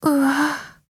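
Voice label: LOWMOOD, Japanese; zion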